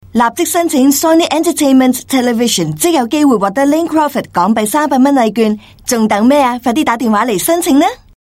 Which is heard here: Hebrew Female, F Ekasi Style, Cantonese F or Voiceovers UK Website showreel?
Cantonese F